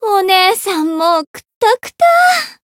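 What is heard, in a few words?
Voice win barely, Japanese; shayne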